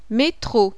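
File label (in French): "METRO", French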